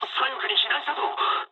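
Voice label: Japanese left wing mood low; War Thunder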